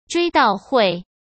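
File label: "追悼会", Mandarin Chinese; hsk; chinese-flashcards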